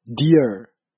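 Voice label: "deer", English; grade4; vertebrates invertebrates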